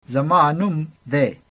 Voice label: my name is, pashto